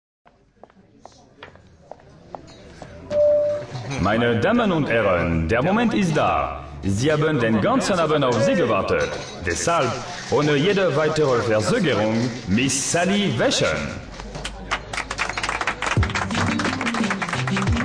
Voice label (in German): hoerspiel, Sprecher Französisch & Deutsch mit französischem Akzent